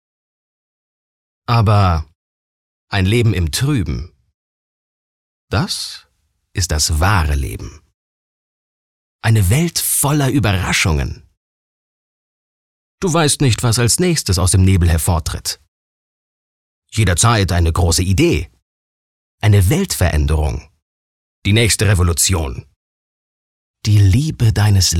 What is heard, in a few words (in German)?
Klarheit Energisch